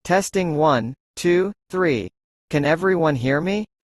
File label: voice recorder testing 1 2 3